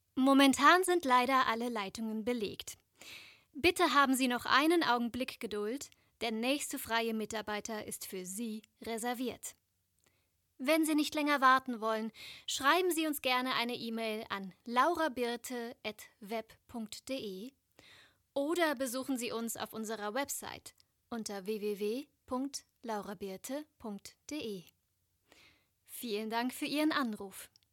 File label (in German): telefonanasage